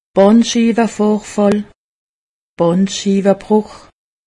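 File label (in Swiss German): "bandschiiwevorfall", Alsatian; medecine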